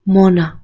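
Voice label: "moneh2", Proto-Indo-European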